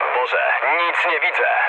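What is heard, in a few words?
searchlite, mood low, Polish speech; War Thunder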